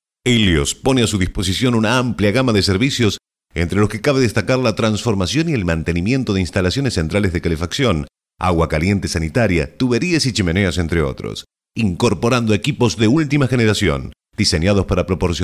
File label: locutor argentino argentinian voiceover; Argentinian male voice over